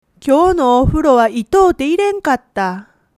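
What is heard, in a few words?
"itai", Japanese dialect, woman; hogen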